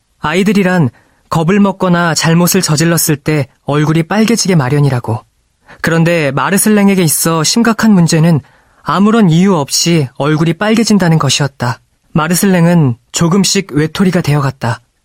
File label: Korean